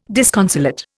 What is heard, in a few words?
"disconsolate", Indian